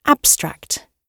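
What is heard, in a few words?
"abstract", British English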